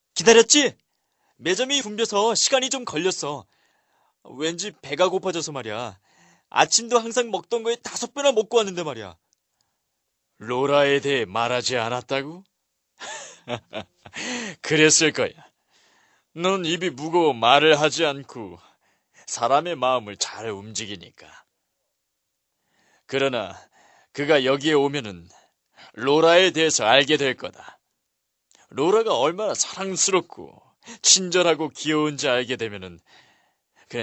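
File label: Korean male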